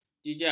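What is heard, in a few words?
"jijak", Malay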